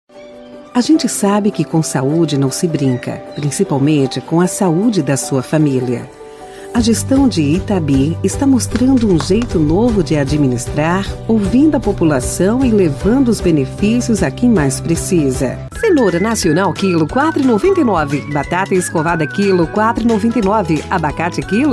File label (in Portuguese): locutora